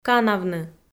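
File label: "канавны", Komi, female; kpv; audio dict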